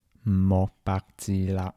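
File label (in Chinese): 剥白至肋-台城-男, 台山话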